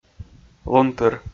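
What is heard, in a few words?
"лунтыр", Komi, male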